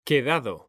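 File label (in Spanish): "QUEDADO", Spanish; mekanta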